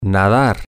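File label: Spanish, to swim, male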